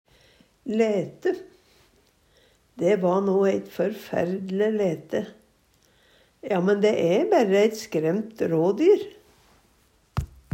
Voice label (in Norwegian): "læte", Numedalsmål; "Dæ va no eit førferd'le læte". "Ja, men dæ æ bærre eit skremt rådyr."